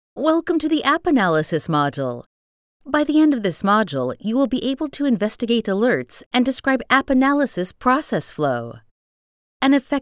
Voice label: Conversational